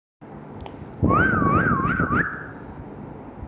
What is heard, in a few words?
voice message